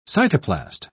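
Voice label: "cytoplast", British English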